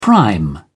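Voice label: "prime", British English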